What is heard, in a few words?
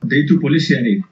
"police", basque